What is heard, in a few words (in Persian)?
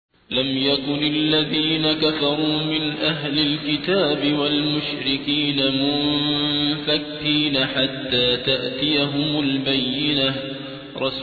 ترتیل; صابر عبدالحکم; سوره بینه